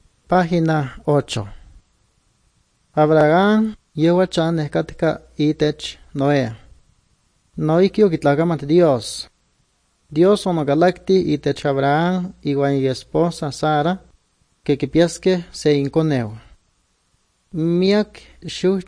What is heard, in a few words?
good news, nahuatl guerrero chilapa